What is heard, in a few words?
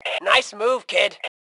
Compliment Nice Move, Female; T2 Female 04